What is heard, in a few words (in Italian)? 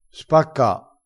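"spacca", vocabolario dialettale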